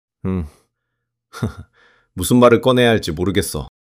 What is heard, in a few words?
fluster